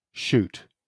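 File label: "shoot", British English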